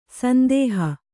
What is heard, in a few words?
"ಸಂದೋಹ", Kannada